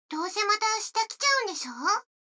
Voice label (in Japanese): 女の子 台詞, ASMR